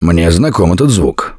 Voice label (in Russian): heard witch, left4dead2 russian, Survivor Voice; Gambler